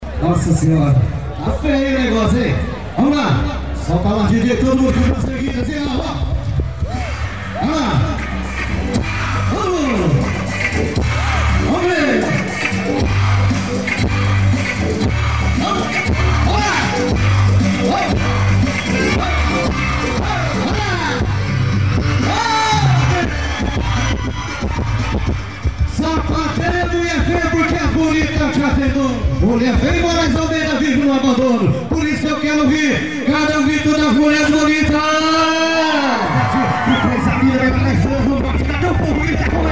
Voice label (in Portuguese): narração